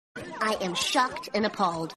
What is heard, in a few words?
shocked and appalled; bart